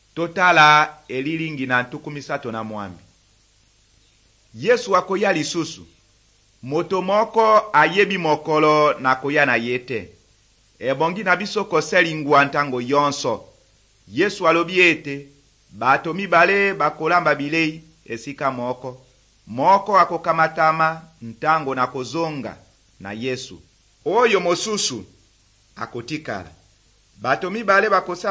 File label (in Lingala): good news lingala west, drama